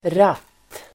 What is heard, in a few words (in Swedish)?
"ratt", Swedish